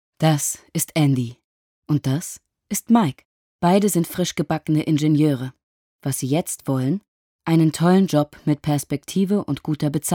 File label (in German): Lehrvideo Werbung; HANIX